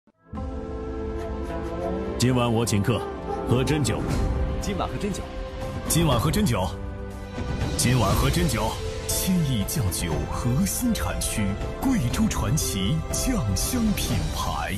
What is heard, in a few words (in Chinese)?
男, 广告; CCTV广告-珍酒